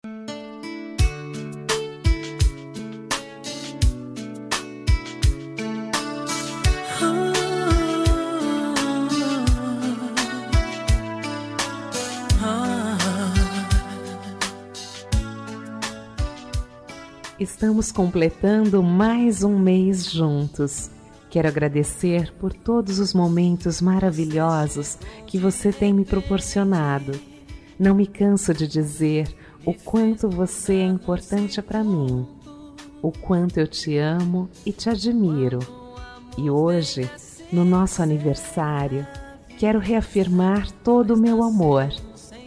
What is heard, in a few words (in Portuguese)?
namoro fem